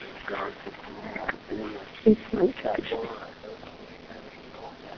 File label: garbled voice; mansfield